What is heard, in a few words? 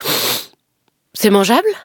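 loading, French; Cookie Run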